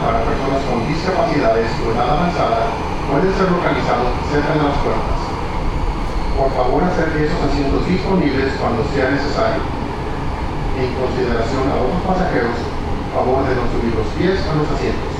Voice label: Announce This is a Trolley Spanish; BlueLine